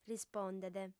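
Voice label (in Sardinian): "rispondet", Sardinian, female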